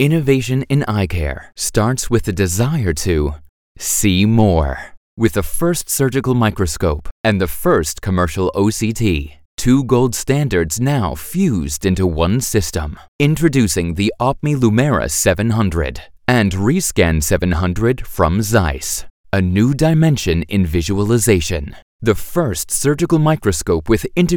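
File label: US English Freundlich; Fremdtake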